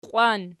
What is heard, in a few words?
"qiwan", Archi